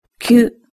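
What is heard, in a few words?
"kyu", Japanese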